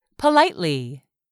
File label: "POLITELY", English; 3000 words